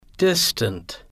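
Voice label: distant, slow; english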